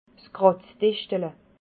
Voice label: "kratzdischtele", alsace

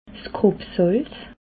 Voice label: "grob salz", alsace

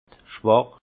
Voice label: "schwach", Alsace; vin; faible

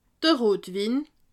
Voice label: "rotwin", alsace

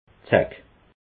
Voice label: "zack", alsace

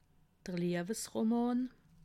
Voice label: "liewesroman", alsace

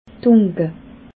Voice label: "dunke", alsace

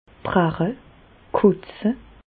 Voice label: "brache", Bas Rhin; medecine; vomir

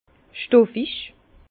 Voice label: "stoffig", Bas Rhin; vin; étoffe

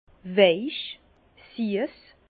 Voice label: "weich", Bas Rhin; vin; doux

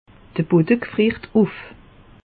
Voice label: "bodde", alsace; meteo

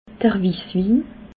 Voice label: "wisswin", alsace

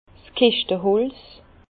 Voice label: "keschteholz", Bas Rhin; vin; châtaignier

